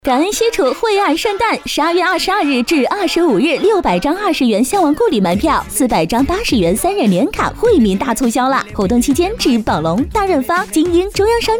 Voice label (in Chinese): 女, 广告, 门票音乐